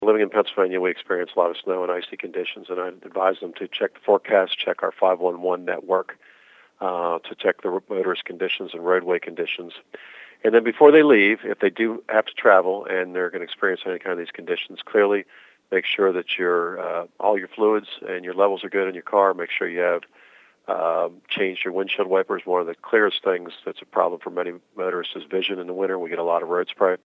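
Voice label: winter driving, radio; penndot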